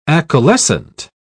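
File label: "acaulescent", English, British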